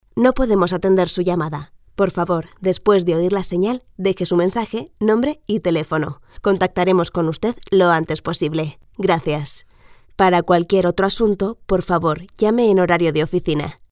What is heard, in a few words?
locutora spanish voiceover ivr message